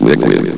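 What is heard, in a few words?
"liquid", male synthesized announcer voice; blizzard; hlvox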